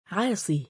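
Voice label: "3aa9ii" male, Arabic; عَاصِي; アースィー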